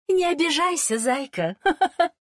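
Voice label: Russian; 回声（守望先锋2）; 终极技能; Не обижайся, зайка. (Смеет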